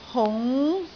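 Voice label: "hung2", Cantonese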